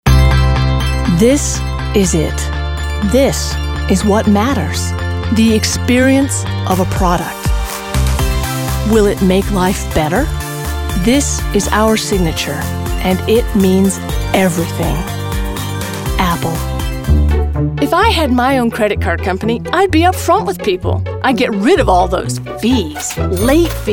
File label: Commercial, voice over